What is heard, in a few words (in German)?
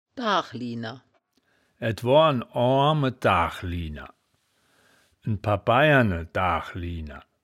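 "daach liehner", wittlicher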